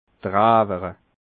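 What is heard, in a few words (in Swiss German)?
"drewere", Alsatian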